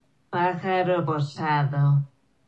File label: speak perched bird slow